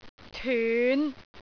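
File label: "tyun5", Cantonese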